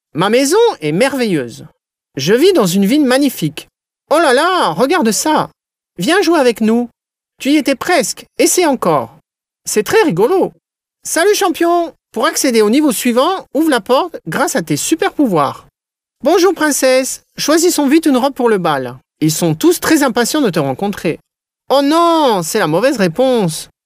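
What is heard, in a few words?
French male children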